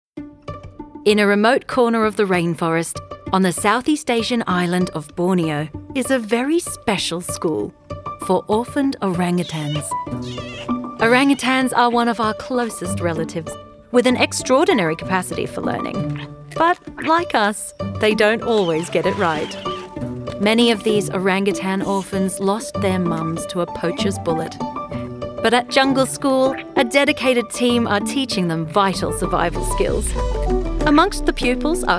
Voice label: female English Australian narration compilation